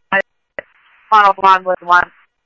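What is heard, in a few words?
police radio dispatch, Scottsdale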